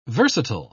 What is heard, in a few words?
"versatile", pronounce